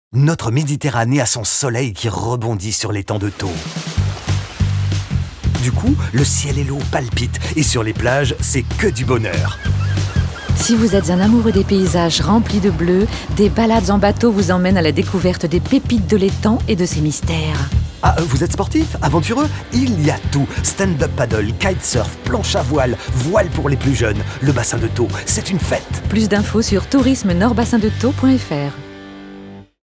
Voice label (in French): spot radio; bassin de thau; fb toulouse